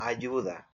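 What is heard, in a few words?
"ayuda", Spanish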